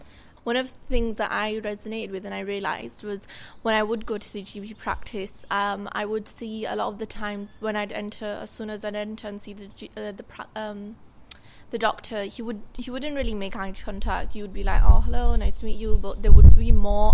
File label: Female